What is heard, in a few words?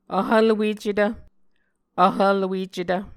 "vhvlwecetv", Muscogee